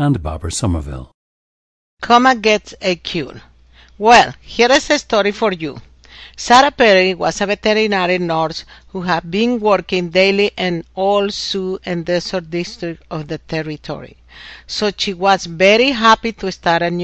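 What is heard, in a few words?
panama, International Dialects of English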